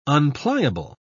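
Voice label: "unpliable", British English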